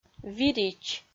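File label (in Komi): "вирич", Komi, female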